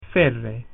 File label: "almost", latin